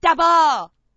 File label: double, Commentators